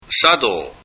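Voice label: "sadou", Japanese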